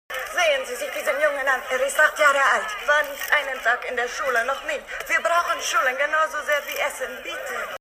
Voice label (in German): arabischer akzent synchron; LOFT VOICES